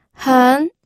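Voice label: "hen", Mandarin Chinese, second tone; pinyin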